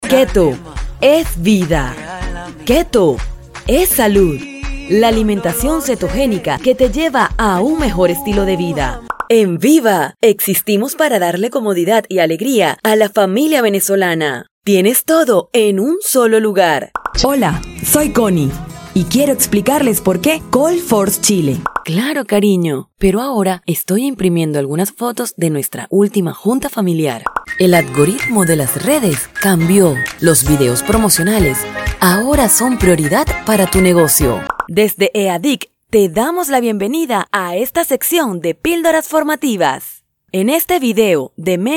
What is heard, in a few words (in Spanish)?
neutro, espanol neutro